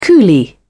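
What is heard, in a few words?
"coolie", British English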